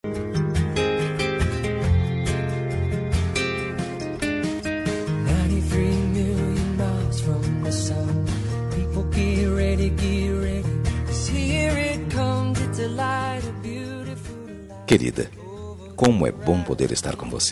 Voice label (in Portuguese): dn esposa masc, dia dos namorados para esposa voz masculina